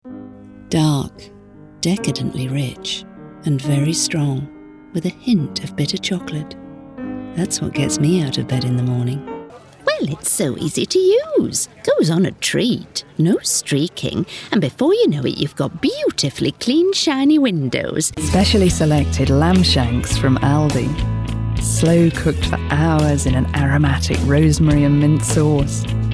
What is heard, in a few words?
english british commercial; Inglés (Reino Unido)